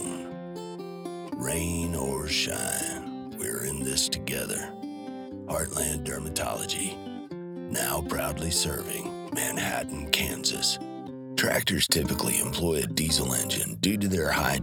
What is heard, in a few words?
Southern Midwest US Accent